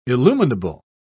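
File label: "illuminable", British English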